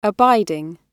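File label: "abiding", British English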